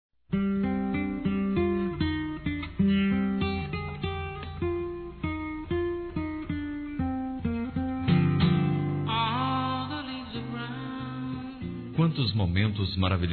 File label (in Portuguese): Para Ex Masculino, Telemensagens